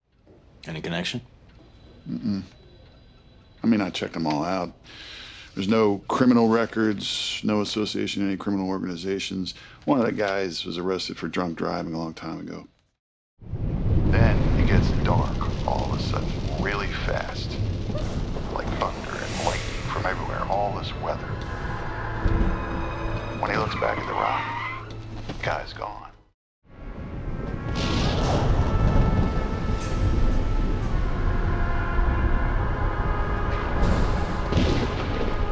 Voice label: Dialog; Meridian